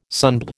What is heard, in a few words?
"sunbed", American